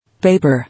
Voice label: "Paper", English